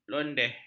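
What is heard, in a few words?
"londeh", Malay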